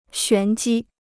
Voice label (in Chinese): "玄机", Chinese